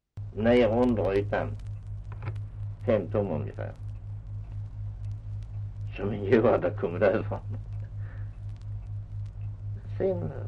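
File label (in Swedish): Östra Ingelstad Skåne, dialekter; Folkminnen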